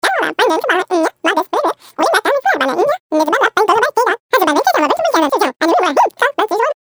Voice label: babbling high pitched